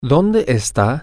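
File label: where is, Spanish, male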